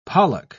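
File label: "pollock", British English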